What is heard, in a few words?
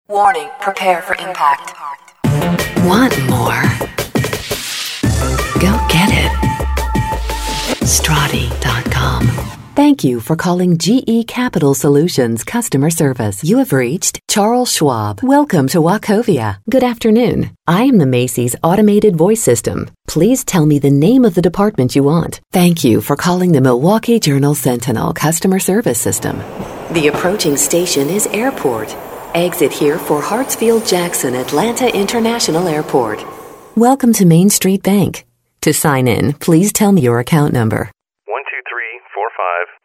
Narration